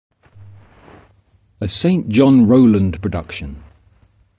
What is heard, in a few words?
voiceover